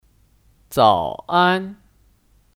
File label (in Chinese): "早安", Zǎo ān; Selamat pagi